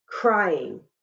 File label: Crying, shona